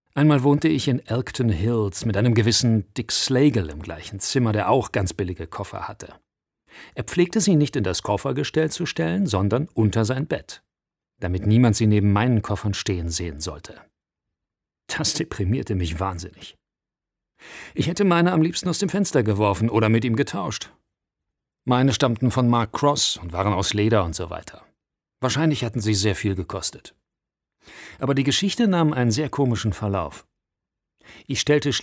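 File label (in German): Hörbuch; faenger im roggen; ivanhoe; lovecraft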